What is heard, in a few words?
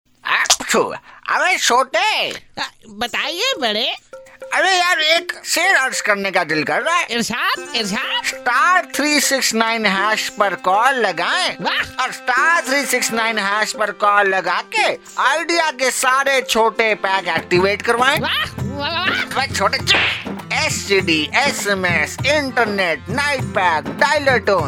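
Hindi MVO, male voice; Idea; Chotte Bade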